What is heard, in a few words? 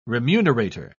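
"remunerator", English, British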